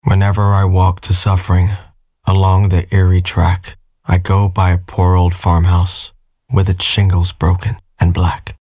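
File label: Commercial poem, American English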